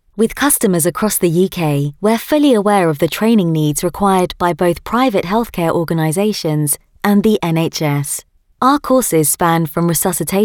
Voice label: Female Young Fresh